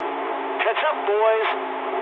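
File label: English near waypoint mood medium; War Thunder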